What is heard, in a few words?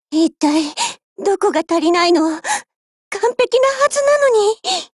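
break, Japanese; HK416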